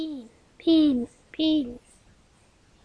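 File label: "beam", spoken word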